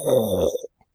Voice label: Amanita Exhausted; oxeyewiki